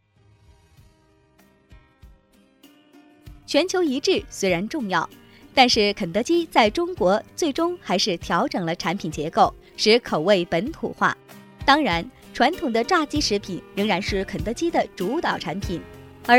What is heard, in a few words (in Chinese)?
女 专题课件类 教学; 肯德基; 教学CD